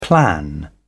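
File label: "plan", British English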